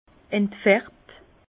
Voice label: "entfarbt", Bas Rhin; vin; décoloré

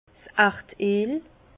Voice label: "erd eel", Bas Rhin; vin; naphte

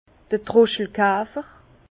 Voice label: "troschelkafer", Bas Rhin; vin

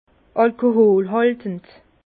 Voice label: "alkoholhaltend", Bas Rhin; vin; allcoolisé peu fortement

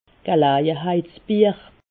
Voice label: "gelejeheitsbier", alsace

